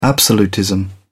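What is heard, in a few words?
"absolutism", British English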